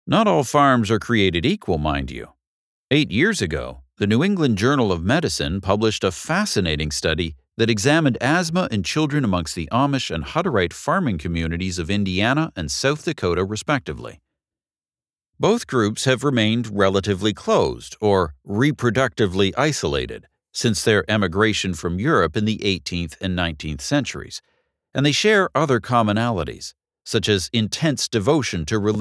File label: Nonfiction Parenting, Crisp, Resonant, Confident